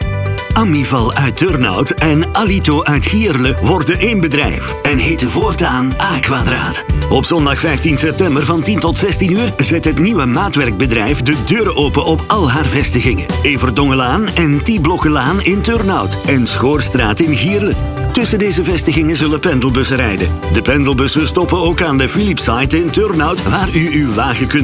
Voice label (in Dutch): radiospot